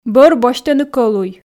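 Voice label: "бӧр босьтӧны кӧлуй", Komi, female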